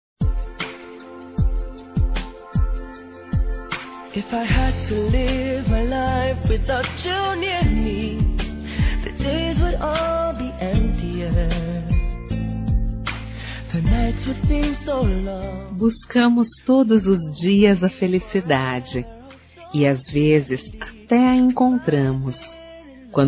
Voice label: TE GOSTO MUITO P QUEM FICA, Telemensagens, Amor, Fem

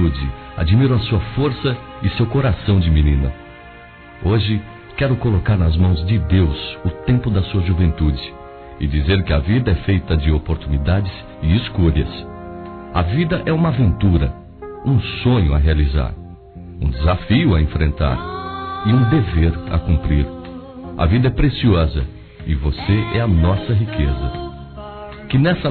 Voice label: Aniversário 15 Anos Neta Masculino; Telemensagens 2017; Aniversários

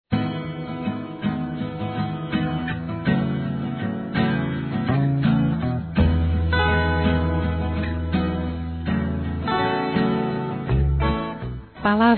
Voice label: Aniversário Místico Feminino; Aniversários; Aniversários diversos

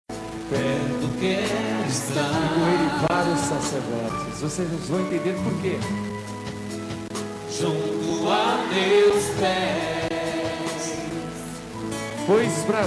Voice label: Mãe religiosa f, Telemensagens, Dia das Mães; Telemensagens 2017

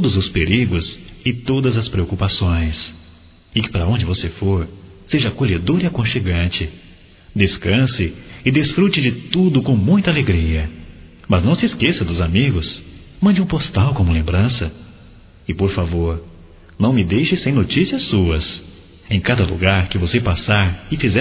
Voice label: Boa Viagem Geral Masculino

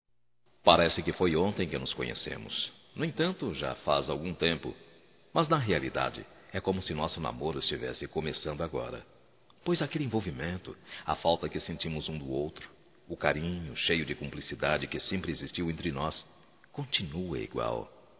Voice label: DECLARAÇÃO DE AMOR ESPOSA, Telemensagens, masc; Telemensagens 2017

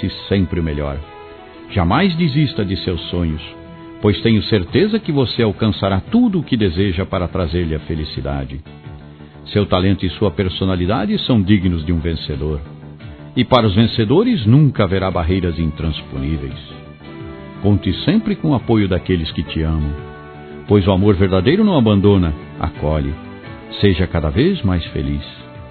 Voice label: Aniversário Sobrinha Masculino

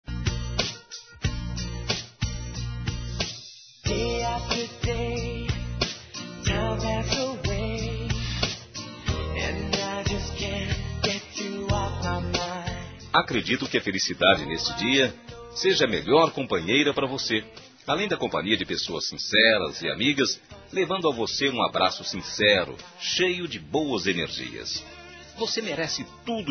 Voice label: ANIV. (NÃO PODEMOS COMPARECER) M

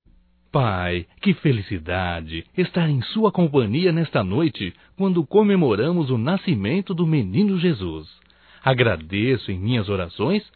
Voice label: NATAL ANO NOVO PAI, masc; Telemensagens 2017

